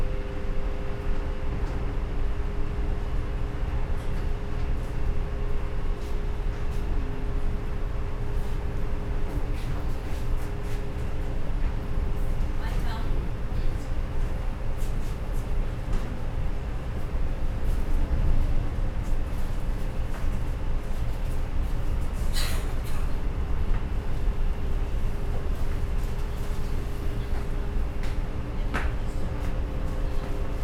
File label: Newspaper Spanish